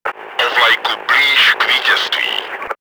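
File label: ally captured, чешской озвучки; Czech; World of Tanks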